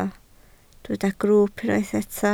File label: "grwwp", Welsh